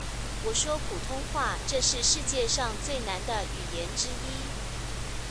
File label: mandarin